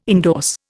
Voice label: "endorse", Indian